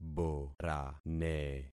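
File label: "borane", concatenated syllables; Affixing experiment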